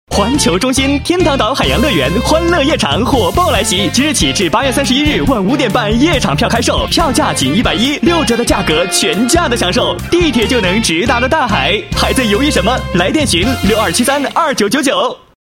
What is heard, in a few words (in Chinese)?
男 电台 年轻跳跃, 浑厚男声; 电台年轻海洋乐园